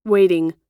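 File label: "waiting", American English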